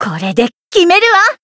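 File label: finish, Japanese; ashuthia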